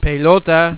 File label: "ball", Spanish